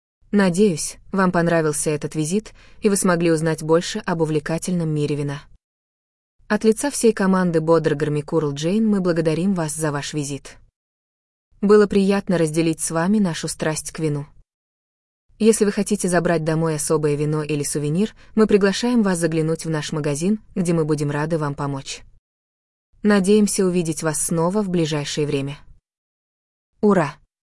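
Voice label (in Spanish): saludos, Russian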